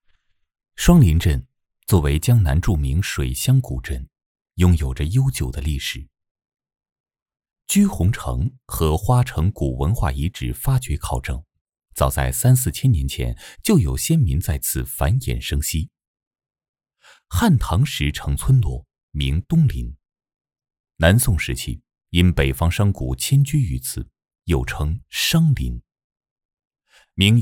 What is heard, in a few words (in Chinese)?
男 纪录片